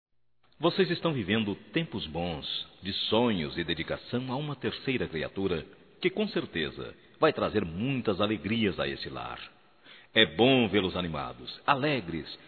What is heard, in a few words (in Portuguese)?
GRAVIDEZ CASAL, masc; Telemensagens 2017